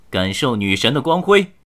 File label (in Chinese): 使用技能, 年轻的修士; ycrpg; BattleCry